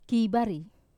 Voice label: "kivari", Wamesa